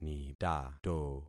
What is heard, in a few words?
"nitato", concat syllables; Affixing experiment